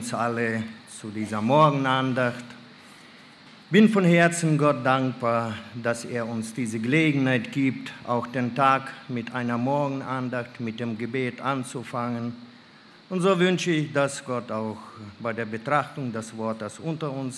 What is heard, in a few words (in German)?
Ansage; MA Gebet fuer die Boten